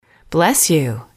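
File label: bless You, english, female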